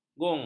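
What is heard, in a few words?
"gung", Malay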